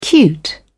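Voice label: "cute", British English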